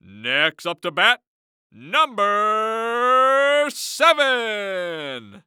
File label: Sports Human Voice Male Baseball Announcer; Next Up To Bat Number Seven; AdobeCC